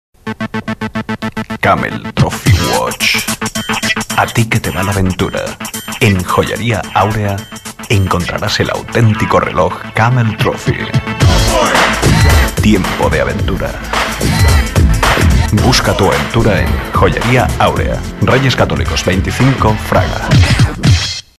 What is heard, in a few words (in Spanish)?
Publicidad Radio voces comerciales musica; Camel Trophy Watch